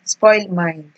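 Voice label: "spoil mind", Nigerian Pidgin, female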